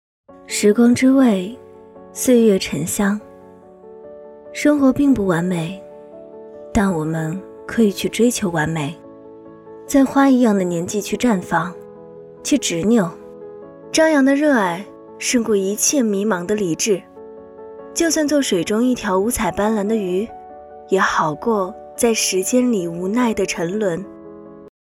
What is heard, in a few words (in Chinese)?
旁白态度片